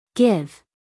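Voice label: "give", American English, female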